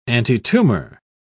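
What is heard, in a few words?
"antitumor", British English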